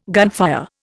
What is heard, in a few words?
"gunfire", Indian